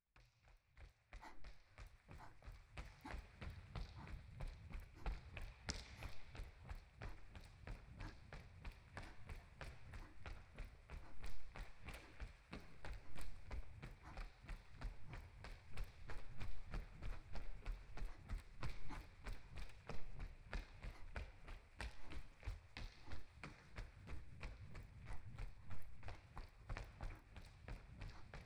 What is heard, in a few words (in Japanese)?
ジョギング・ランニングASMR; 一緒にジョギング・ランニング